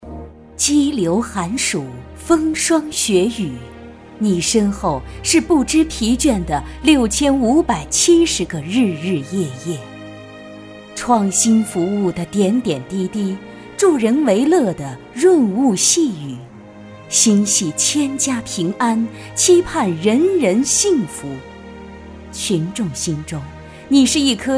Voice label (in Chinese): 专题大气感人颁奖词